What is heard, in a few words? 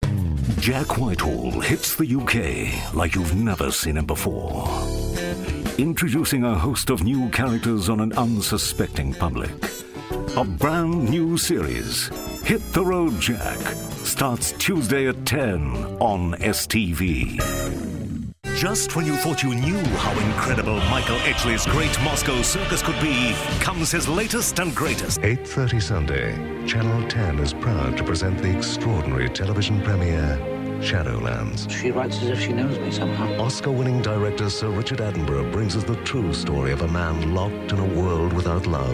english scottish promos